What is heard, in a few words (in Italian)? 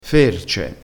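"ferce", vocabolario dialettale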